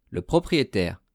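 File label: "le proprietaire", French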